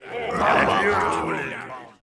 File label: orc uk murm